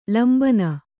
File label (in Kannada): "ಲಂಬನ", kannada